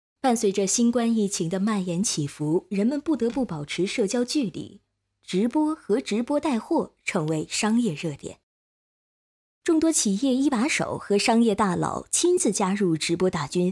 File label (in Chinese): 新闻